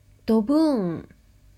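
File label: "dobuun", Japanese; ドブーン – dobuun; manga onomatopoeia sound effects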